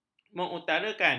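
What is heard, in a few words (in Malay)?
"mengutarakan", Malay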